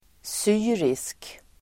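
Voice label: "syrisk", Swedish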